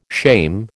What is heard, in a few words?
"shame", American